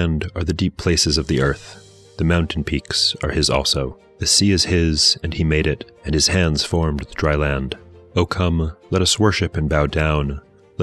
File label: poetry and prayer psalm english; Meir Soloveichik